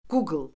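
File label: "quql", Azerbaijani; Orfoqrafiya Lüğəti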